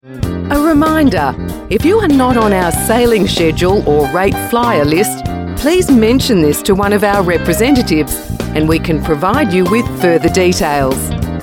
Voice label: transport logistics messages on hold

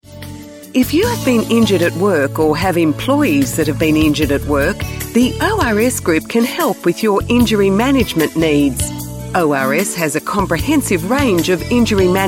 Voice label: education training messages on hold

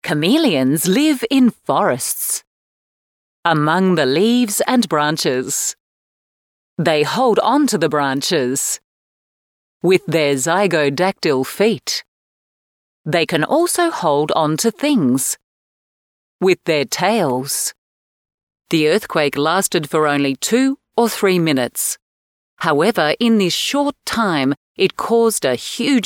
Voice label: childrens audio book voice over

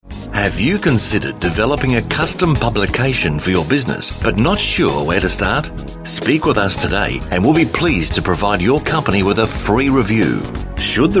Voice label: creative design media messages on hold

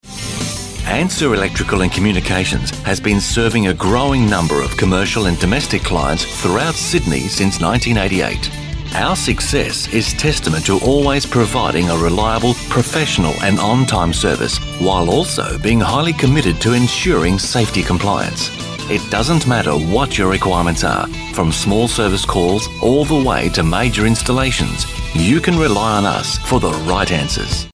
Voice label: trades construction messages on hold

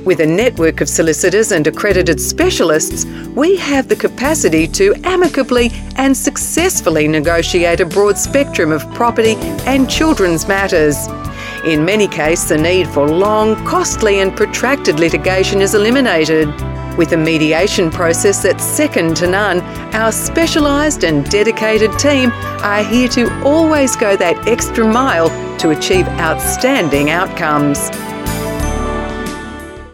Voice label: legal messages on hold

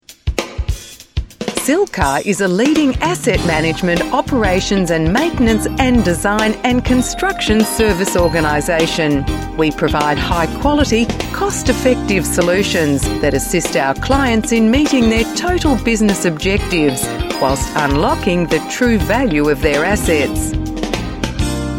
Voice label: IT software messages on hold

